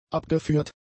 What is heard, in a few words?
"abgeführt", German; adjectives